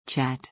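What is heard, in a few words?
"chat", English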